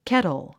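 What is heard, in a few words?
"kettle", English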